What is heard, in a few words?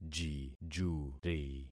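"jijuri", concatenated syllables; Affixing experiment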